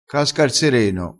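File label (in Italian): "sereno", dialettale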